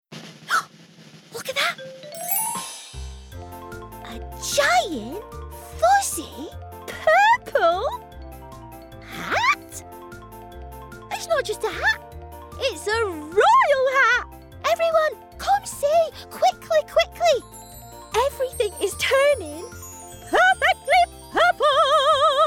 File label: Animation Toddler TV